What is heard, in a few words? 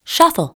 "SHUFFLE", Voice Over, Baccarat; TABLEGAMES